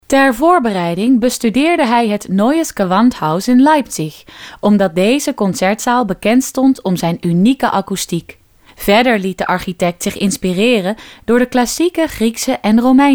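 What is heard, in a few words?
female Dutch; holland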